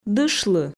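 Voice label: "дышлы", Komi, female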